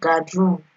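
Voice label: "guard room", Nigerian Pidgin, female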